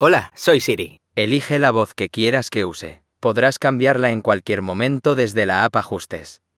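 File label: Spanish, Spain; iOS Sounds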